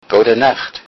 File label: good night, lowsaxon